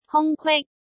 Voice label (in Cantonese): "hung1-kwik1", cantonese